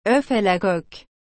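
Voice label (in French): "œuf à la coque", French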